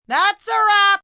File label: Thats A Wrap, Film, movie, direction, assistant director, female 'That's a wrap', (human voice speaking); Talking